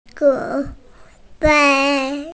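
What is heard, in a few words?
"zhǐ huī", Mandarin Chinese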